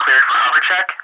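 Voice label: cleared hover check, English ATC Messages; DCS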